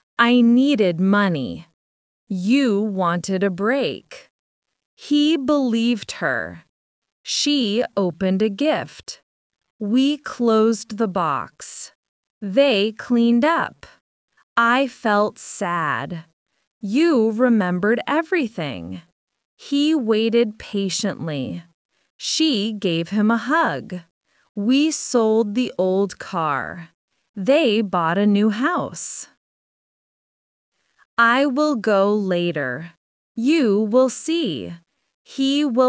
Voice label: AI speech